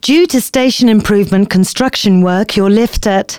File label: announcements, female